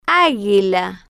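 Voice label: "aguila", slow, Spanish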